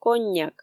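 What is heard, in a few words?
"коньяк", Komi, female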